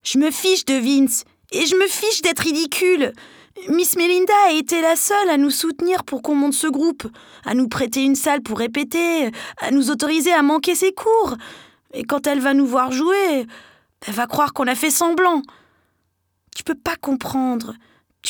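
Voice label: FR Characters, French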